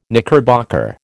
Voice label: "knickerbocker", American